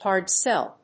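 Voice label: "hard sell", English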